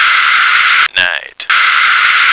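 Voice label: "night", American English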